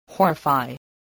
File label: "horrify", voice spelling